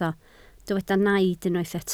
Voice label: "naid", Welsh

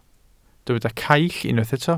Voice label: "caill", Welsh

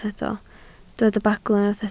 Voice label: "bagl" Welsh

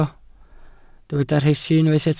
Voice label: "rhesi", Welsh

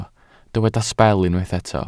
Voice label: "sbel" Welsh

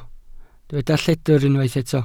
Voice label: "lledr", Welsh

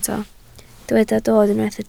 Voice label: "dod", Welsh

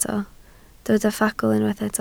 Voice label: "ffagl", Welsh

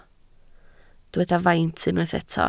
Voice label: "faint", Welsh

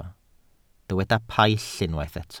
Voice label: "paill", Welsh

